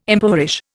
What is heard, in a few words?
"impoverish", Indian